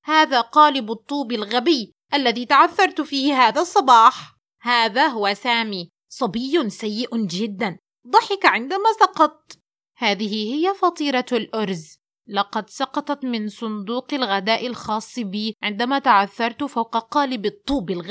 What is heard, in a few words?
little angry and embarrassed girl; Voice Acting